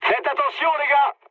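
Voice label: near waypoint, mood medium, French; War Thunder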